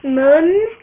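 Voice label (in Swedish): "mun", Swedish; sv; tdegames; ktuberling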